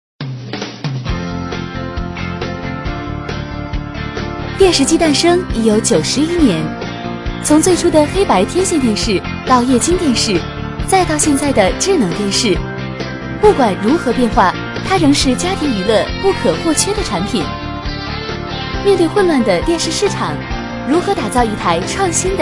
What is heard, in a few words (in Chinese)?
女; 飞碟说; 病毒营销